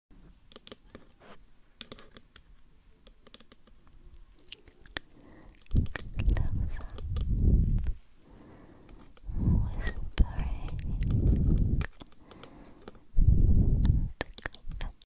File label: whispering asmr; اسمر زمزمه